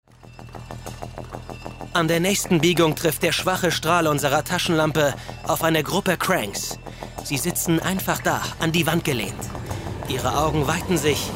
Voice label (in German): Hörbuch; Maze Runner; Runtastic